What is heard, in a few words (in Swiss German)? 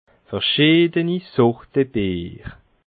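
"verschiideni", Bas Rhin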